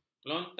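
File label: "kelontang", Malay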